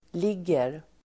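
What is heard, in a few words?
"ligger", Swedish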